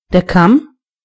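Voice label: comb, German voices words; gcompris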